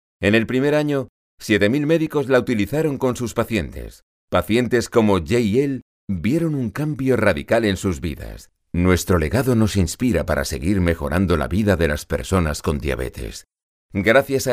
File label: locutor spanish voiceover